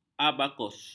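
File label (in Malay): "abakus", Malay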